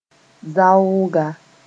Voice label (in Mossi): "zaooga", moore